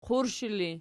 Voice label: "qurshi", Archi

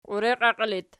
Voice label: "qiureqiaqii", Archi

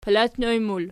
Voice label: "palatnoj", Archi

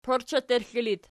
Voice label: "porcheterxli", Archi

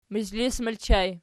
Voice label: "mizhlis", Archi

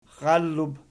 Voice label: "xxallub", Archi

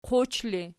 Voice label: "quch", Archi

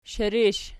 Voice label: "shirish", Archi

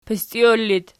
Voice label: "pistiol", Archi

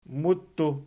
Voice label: "muttu", Archi

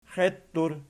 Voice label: "xeittur", Archi

